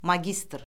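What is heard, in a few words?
"magistr", Azerbaijani; Orfoqrafiya Lüğəti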